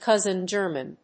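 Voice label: "cousin-german", English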